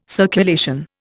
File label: "circulation", Indian